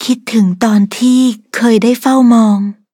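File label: touch, Thai; Cookie Run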